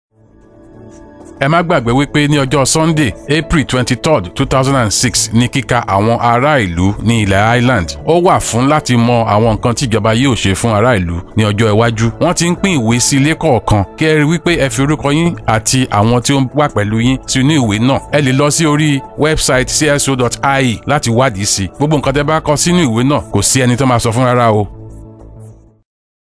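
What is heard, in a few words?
Yoruba, advertising; An intensive public awareness campaign on TV, radio, newspaper and outdoor; Sunrise Radio; census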